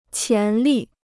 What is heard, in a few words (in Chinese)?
"潜力", Chinese; qián lì